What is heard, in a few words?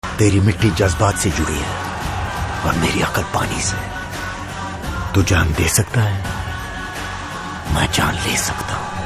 Trailer Dailogue, Hindi; Tanhaji; Saif